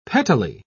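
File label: "pettily", English, British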